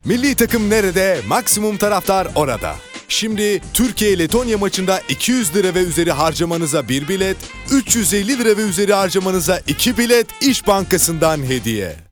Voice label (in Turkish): kampanya, seslendirme